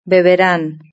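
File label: "beberán", Spanish; verb-conjugator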